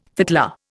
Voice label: "fiddler", Indian

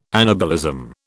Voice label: "anabolism", British

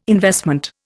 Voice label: "investment", Indian

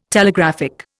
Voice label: "Telegraphic", Indian

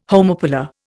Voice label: "homopolar", Indian

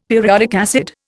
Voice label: "periodic acid", Indian; Dictionary

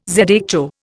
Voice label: "zho", Indian

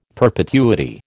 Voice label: "perpetuity", American

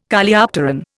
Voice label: "Coleopteron", Indian

